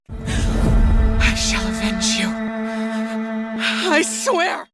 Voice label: female hero anime female soldier warrior emotional sorrowful sad cinematic